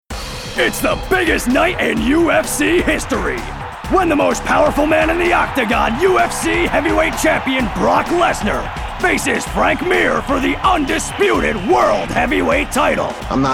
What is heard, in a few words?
Promo